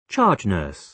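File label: "charge nurse", British English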